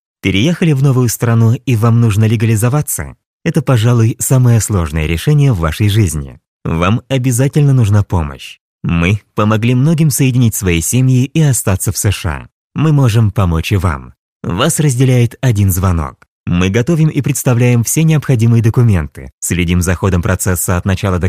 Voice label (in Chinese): 俄语男声 宣传风格